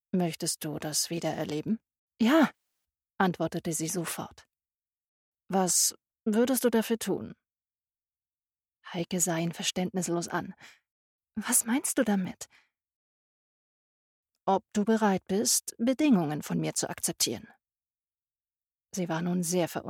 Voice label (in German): kurz horbuch erotik; Sprecher in Berlin; Muttersprache Deutsch